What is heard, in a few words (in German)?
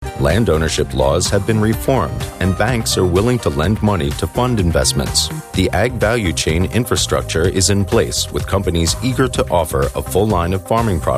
Eng US Freundlich; Fendt